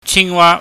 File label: "chingwa", Cantonese